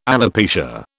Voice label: "alopecia", British